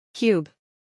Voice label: "cube", English

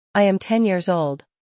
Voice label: "I am ten years old", English

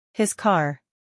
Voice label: "his car", English

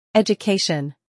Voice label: "education", English

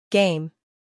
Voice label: "game", English

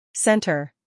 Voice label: "center", English

